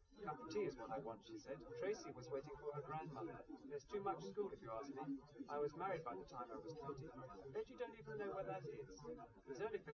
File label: male, live rec; SiSEC08; SiSEC underdetermined